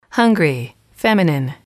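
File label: "hungry", English, female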